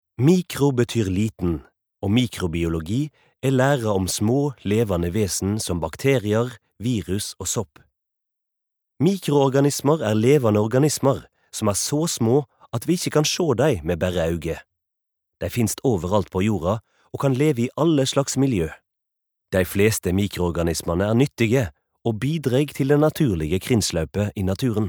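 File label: Norwegian nynorsk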